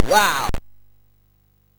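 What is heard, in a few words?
Bouncy Balls speech, MOS 8580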